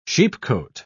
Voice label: "sheepcote", pronounce